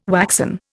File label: "waxen", Indian